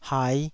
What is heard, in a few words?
"hai5", taiwanese